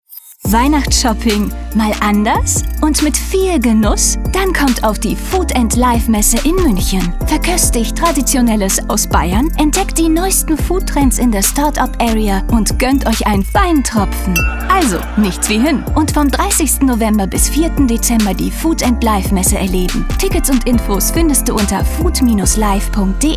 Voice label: Spot; Food Life; EgoFm; LOFT VOICES

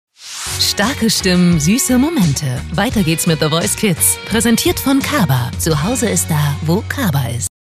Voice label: Werbung; Kaba

